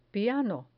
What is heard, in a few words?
"piano", Spanish